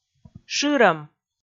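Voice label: "шырӧм", Komi, female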